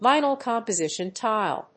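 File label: "Vinyl composition tile", English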